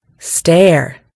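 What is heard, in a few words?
"stare", American English; gcompris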